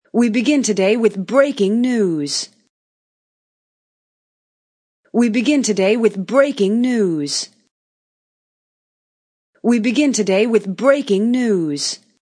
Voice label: News Anchor Female Breaking News; Human Vocal Ringtone